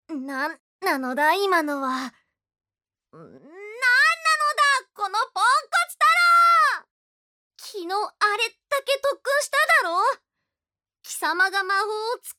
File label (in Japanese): アニメ